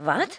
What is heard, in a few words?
Female; baldurs1